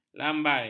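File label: "lambai", Malay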